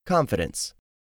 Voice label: "confidence", English; 3000 words

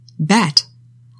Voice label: "bet", english; 3000 words

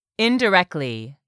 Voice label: "indirectly", English; 3000 words

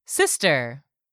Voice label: "SISTER", english; 3000 words

